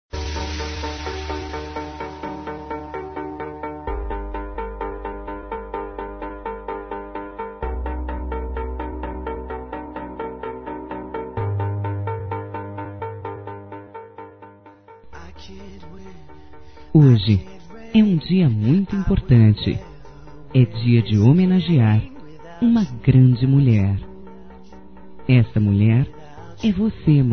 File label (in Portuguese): Dia das Mães Filha Para Mães Neutro Feminino; Telemensagens 2017